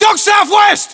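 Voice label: threat dog south west, battlechatter British; localized english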